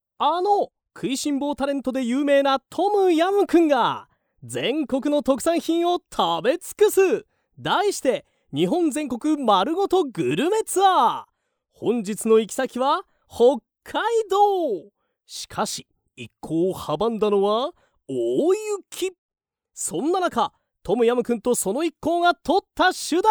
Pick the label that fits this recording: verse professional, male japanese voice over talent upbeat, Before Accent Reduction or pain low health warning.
male japanese voice over talent upbeat